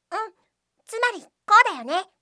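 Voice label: "tsumari kou dayone", Japanese; koudou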